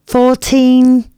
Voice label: announcements female